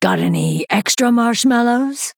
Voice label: Female Night Elf Mirror Greetings